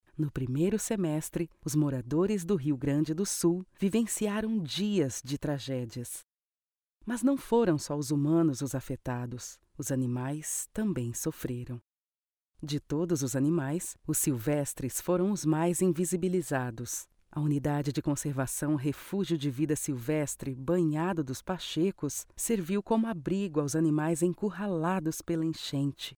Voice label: portuguese brazilian documentaries

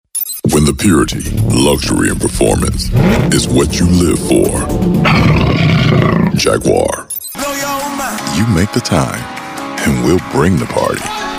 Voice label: english american commercial

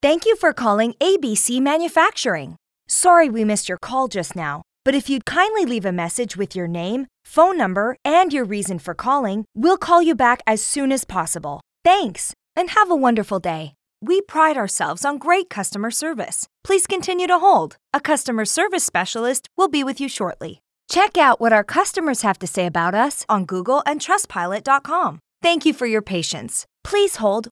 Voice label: english canadian ivr

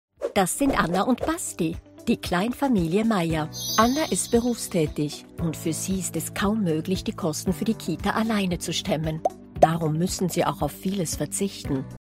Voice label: german corporate videos; professional Swiss voice actor

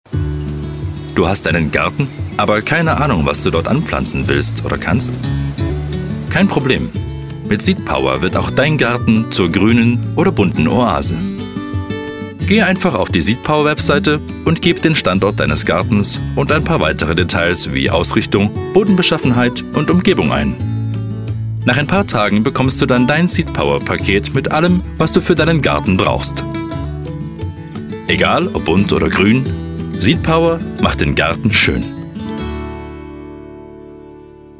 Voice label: german e-learning

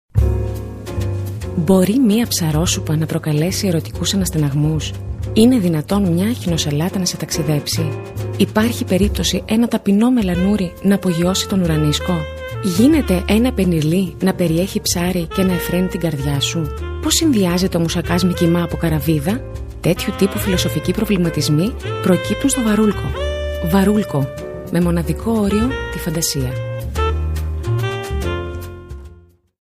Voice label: greek narration; Greece

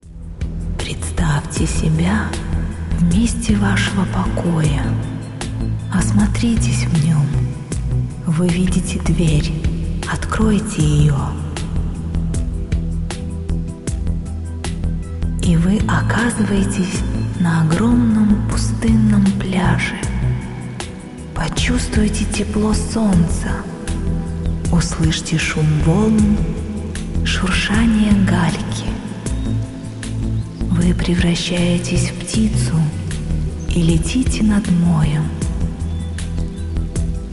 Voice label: russian podcasts

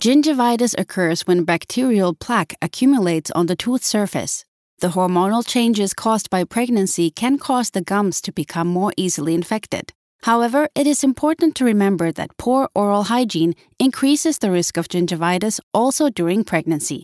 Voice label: english narration

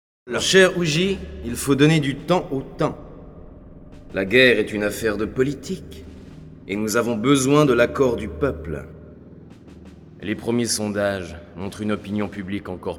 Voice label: French Switzerland video games; Swiss French; voice actor

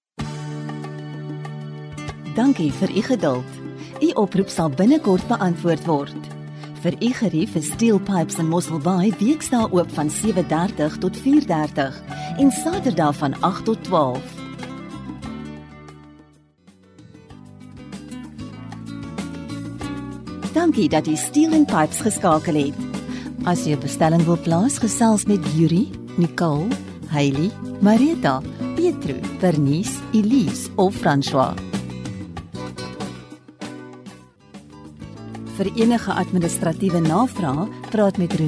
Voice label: afrikaans ivr

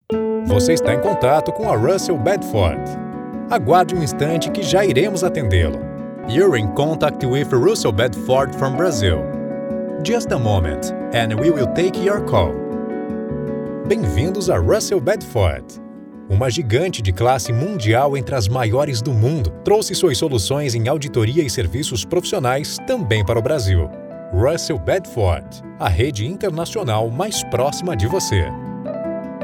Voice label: portuguese brazilian ivr

